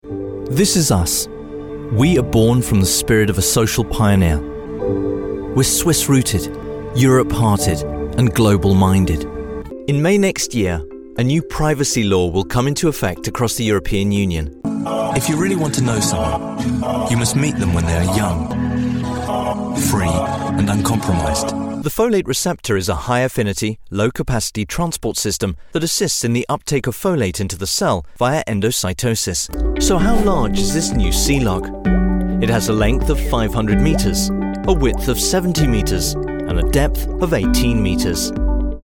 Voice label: Male Voice Over Talents Narration Corporate